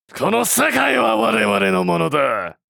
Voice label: This world belong to us anime villain character